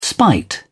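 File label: "spite", British English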